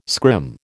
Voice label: "scrim", American